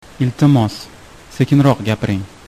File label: slowly, uzbek; Iltimos, sekinroq gapiring